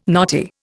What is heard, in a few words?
"knotty", Indian